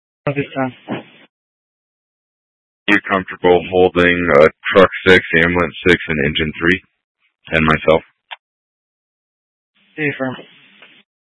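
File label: Incident Tac 2, Scanner audio recording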